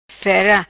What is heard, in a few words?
"Fera", Macanese